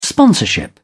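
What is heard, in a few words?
"sponsorship", British English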